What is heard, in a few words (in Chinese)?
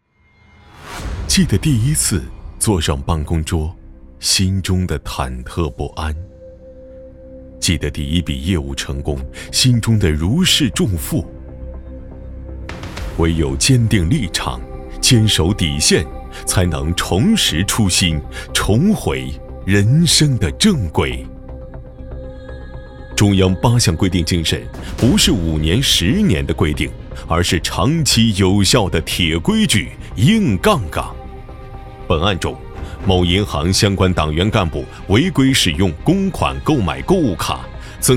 男 走心 廉洁 紧张严肃风格